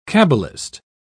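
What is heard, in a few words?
"cabalist", British English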